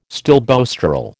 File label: "stilboestrol", American